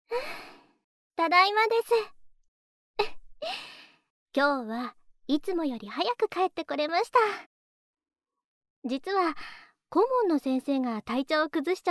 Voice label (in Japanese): 一緒にお風呂、なんかドキドキしちゃいます ASMR ver; 一週間、おまんこ係 コンプリートパック